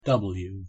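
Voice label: "w", English; pronunciation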